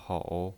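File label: "hou", third tone, pinyin voice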